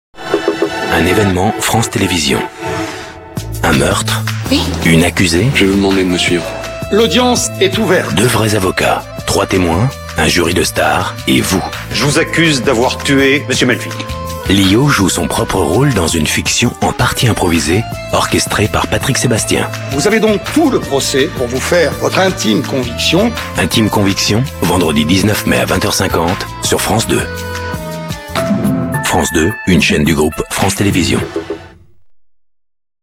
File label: promo; france2; intime conviction